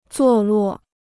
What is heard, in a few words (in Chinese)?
"坐落", Chinese; zuò luò; to be situated